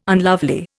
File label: "unlovely", Indian